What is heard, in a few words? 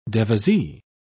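"devisee", British English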